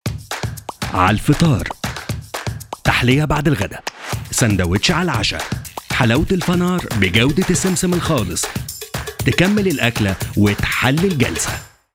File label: Egyptian TVC sample